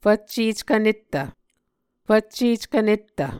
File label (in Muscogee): "fvcceckvnettv", Muscogee; Mvskoke Words with Repeated Consonants; Onvkv Ohhvyayices; A Dictionary of Creek/Muskogee; SIL International